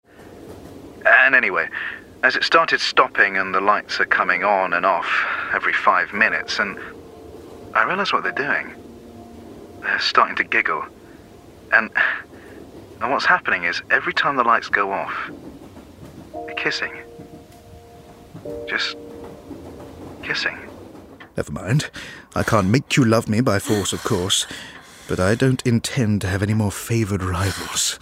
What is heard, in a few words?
Radio Drama